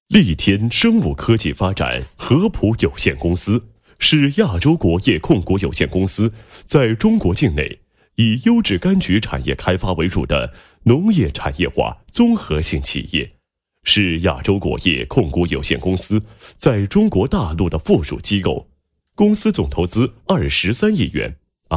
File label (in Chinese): 男 企业宣传 宣传配音; 利添生物科技发展有限公司